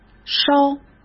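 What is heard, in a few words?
"烧", Mandarin Chinese; chinese flashcards; hsk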